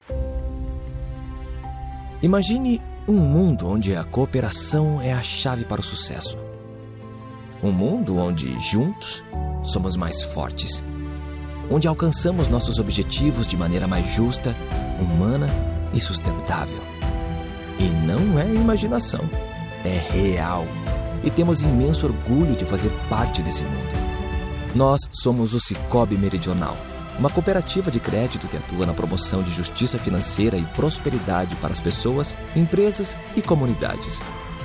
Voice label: portuguese brazilian corporate videos; Tenho 40 anos. Sou um dublador brasileiro com sotaque natural.